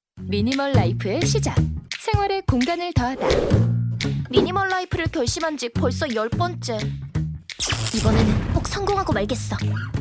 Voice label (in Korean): 광고 CM 일반인 10대 20대; 창고지기